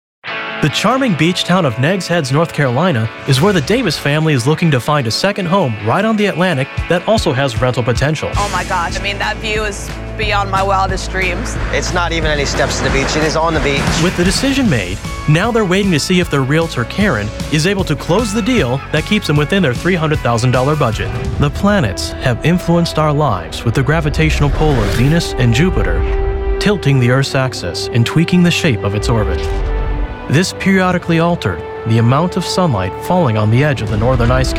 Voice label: TV Narration